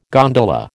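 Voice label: "gondola", American English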